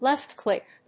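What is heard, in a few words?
"left-click", English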